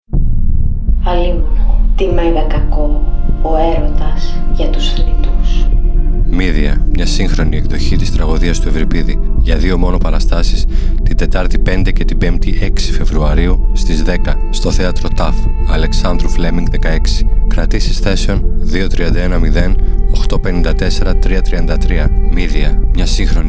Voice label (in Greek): ΜΗΔΕΙΑ RADIO SPOT